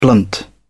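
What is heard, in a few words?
"blunt", British English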